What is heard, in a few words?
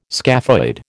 "scaphoid", American